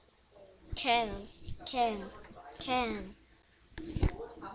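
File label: "can", spoken word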